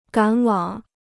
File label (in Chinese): "赶往", Chinese